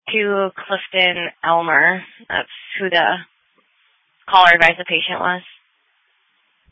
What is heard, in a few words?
Sheriff Dispatch